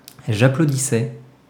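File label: "j'applaudissais", French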